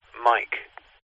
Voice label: vehicles airplane pilot radio chatter says phonetic alphabet mike